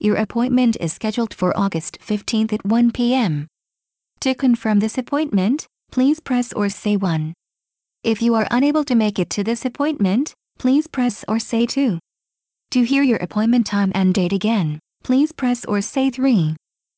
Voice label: directed dialogue ivr; SmartAction